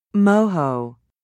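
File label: "moho", British English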